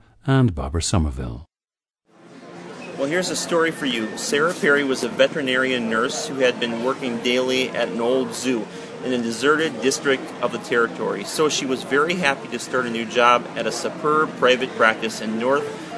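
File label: minnesota, International Dialects of English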